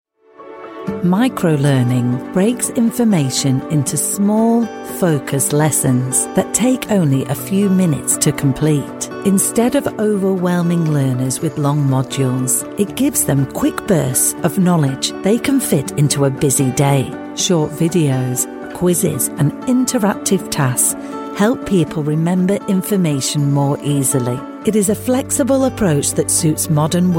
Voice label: explainer montage